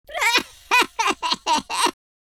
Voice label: lose loop, Welsh; speech